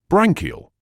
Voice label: "branchial", medical english uk

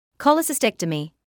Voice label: "cholecystectomy", English

